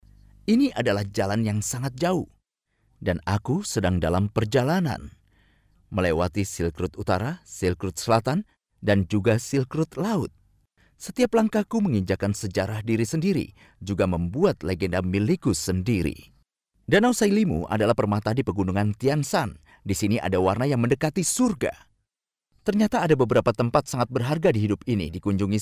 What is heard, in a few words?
male indonesian voice over narrative